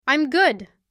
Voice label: "Im good", voz grossa; estou bem em inglês